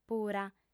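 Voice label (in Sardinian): "pura", female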